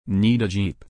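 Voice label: "need a jeep", English pronunciation; long i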